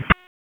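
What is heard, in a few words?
Raleigh Police Northeast District Dispatch, p25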